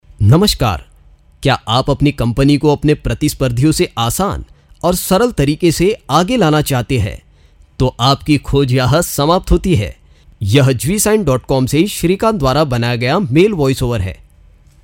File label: Real Hindi Male Voiceover